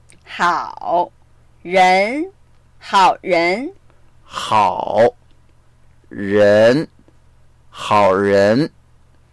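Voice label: "hao3 ren2", Mandarin Chinese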